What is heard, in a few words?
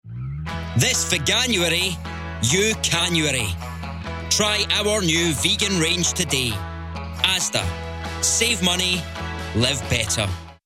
Commercial; Veganuary